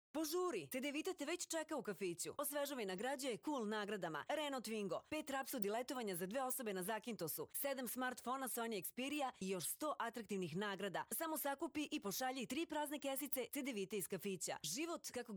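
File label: Serbian, female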